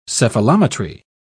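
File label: "cephalometry", British English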